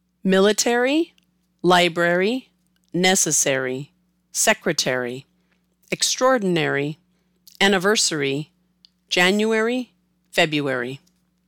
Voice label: Military to February, american english